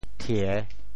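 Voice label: "thie3", Teochew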